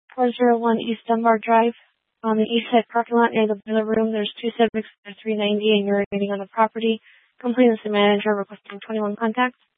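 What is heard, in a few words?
Tempe police department, scanner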